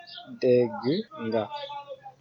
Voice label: do you understand, wolof; Dégg nga?

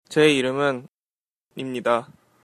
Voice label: my name is, Korean; 제 이름은 ... 입니다; (je ireum-eun ... imnida)

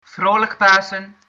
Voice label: happy easter, dutch

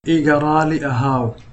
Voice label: excuse me, somali

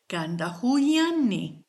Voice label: i love you, kichwa

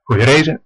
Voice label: bon voyage, west flemish

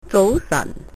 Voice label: good morning, cantonese

